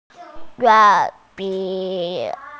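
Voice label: "yu4 mi3", Mandarin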